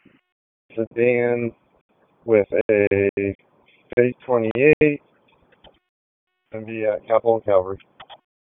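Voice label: Police Northeast District Dispatch, p25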